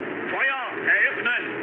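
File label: German attack position mood med, speech; War Thunder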